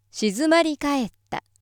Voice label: female